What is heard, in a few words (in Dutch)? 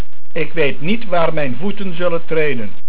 "Voeten", Dutch